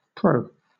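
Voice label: "pro", British English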